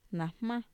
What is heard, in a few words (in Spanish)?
frijol, Mazateco; INALI